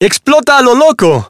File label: Balloon Popper Expressions, Spanish; Birthday Party Bash; Wii